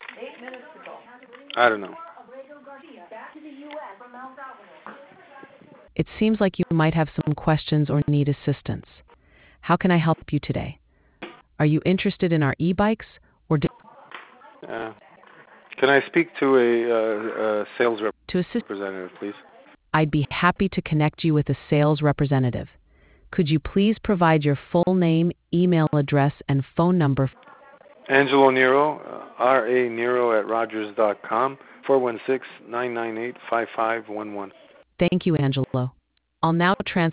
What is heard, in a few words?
conversation